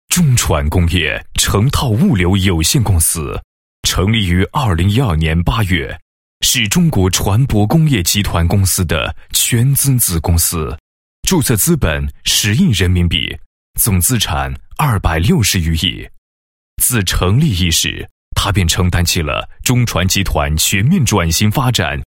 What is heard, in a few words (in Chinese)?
男 企业; 中船工业成套物流有限公司